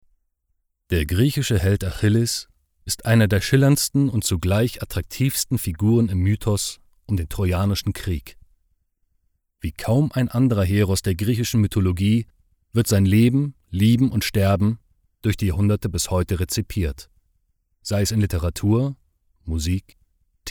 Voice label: Audio Guide